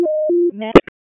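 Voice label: Police Spec Ops Tac, radio; wake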